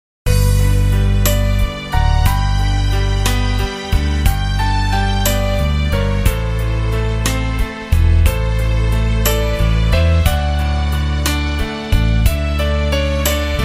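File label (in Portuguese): telemensagem, female; ANIV TIA FEM; aniversario tia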